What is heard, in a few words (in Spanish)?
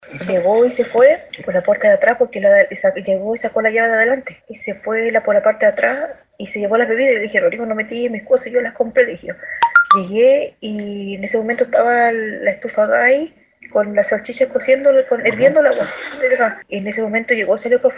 cuña mujer